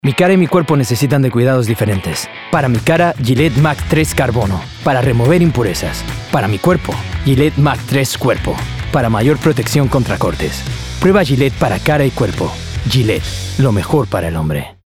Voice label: Commercial, Bright, Upbeat, Conversational, Spanish; Gillette